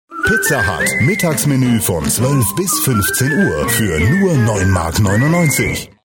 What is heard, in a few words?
male german voice over talent sales